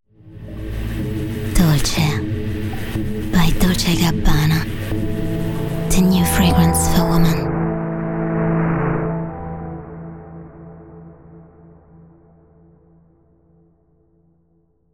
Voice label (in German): EN Werbung soft